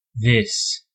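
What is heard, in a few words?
"this", American English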